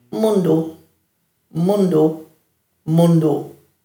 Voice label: "mundu-", Dhurga